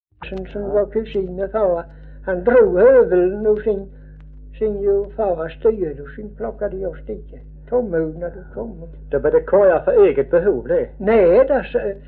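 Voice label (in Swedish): Örkened Skåne, Svenska sagor dialekter; Folkminnen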